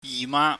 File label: "yih ma", Cantonese